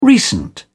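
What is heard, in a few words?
"recent", British English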